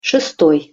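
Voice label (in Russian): "sestoj", Russian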